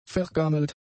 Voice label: "vergammelt", German; adjectives